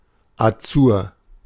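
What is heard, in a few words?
"Azur", German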